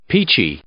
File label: "peachy", English